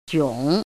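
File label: "jiǒng", Mandarin Chinese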